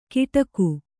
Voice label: "ಗಿಟಕು", kannada

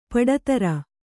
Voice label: "ಪಡತರ", Kannada

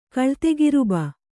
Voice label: "ಕಳ್ತೆಗಿರುಬ", Kannada

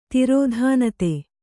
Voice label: "ತಿರೋಧಾನತೆ", Kannada

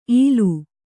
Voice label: "ಈಲು", Kannada

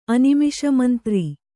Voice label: "ಅನಿಮಿಷಮಂತ್ರಿ", kannada

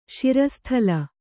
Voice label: "ಶಿರಸ್ಥಲ", kannada

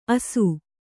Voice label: "ಆಸು", Kannada

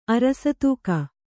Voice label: "ಅರಸತೂಕ", Kannada

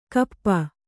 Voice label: "ಕೋಪಿ", Kannada

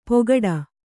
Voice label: "ಪೊಗಡ", kannada

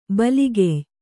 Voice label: "ಬಲಗೆಯ್", Kannada

